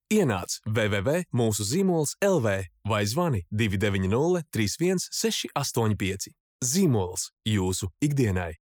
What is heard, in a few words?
male latvian voice over talent narrative